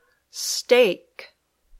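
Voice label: "steak", English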